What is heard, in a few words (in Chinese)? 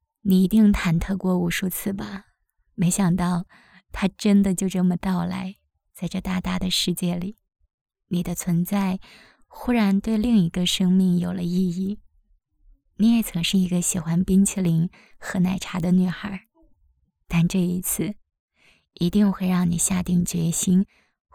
独白 妈妈 抒情自述; 十月怀胎